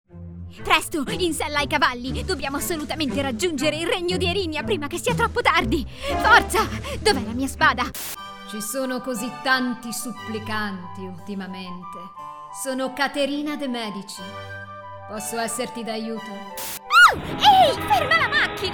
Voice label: GAMES ITALIAN